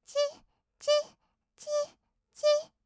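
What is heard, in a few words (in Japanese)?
"ちっちっ", Japanese, kawaii voice; 合いの手; 1200 kawaii voice!!; ASMR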